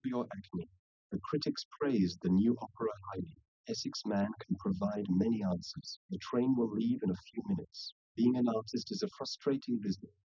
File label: male; SiSEC10; underdetermined